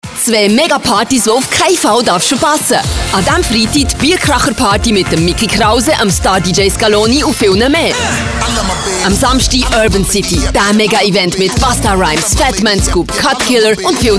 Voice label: Commercial, CH-D; Megapartys Festhalle Bern